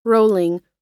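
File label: "rolling", American English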